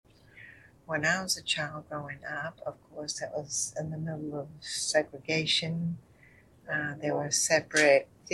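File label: USA North Carolina Wilmington Female 60s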